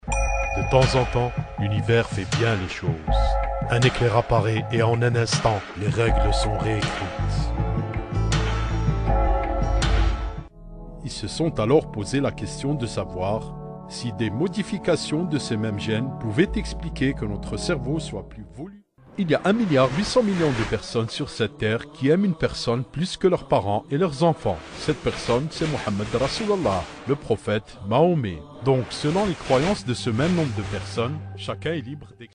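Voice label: French, male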